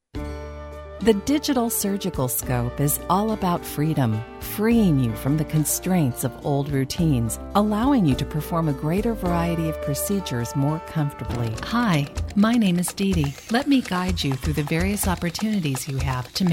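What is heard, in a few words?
narration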